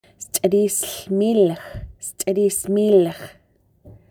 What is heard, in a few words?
tall orgeon grape, syilx okanagan; syilx okanagan partnerships